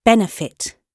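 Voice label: "benefit", British English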